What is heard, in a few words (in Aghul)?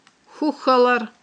"хьухьалар", aghul